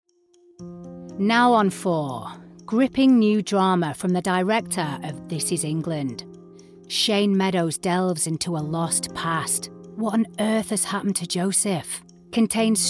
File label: TV CONTINUITY; CHANNEL 4 SHOWREEL